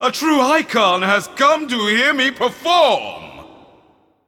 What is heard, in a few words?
Male Void Elf Emote Start